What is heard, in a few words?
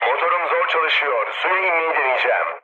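Turkish damaged engine, mood low, speech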